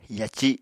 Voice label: "yaci", Apatani